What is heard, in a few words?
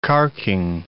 "carking", English